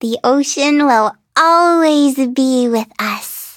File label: touch, en; cookie run